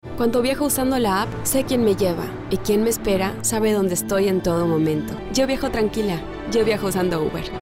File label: Confident girl next door Powerful; Uber